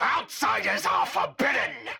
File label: Scientist Caster Chokepoint Line; NPC; Heist Cultist Chokepoint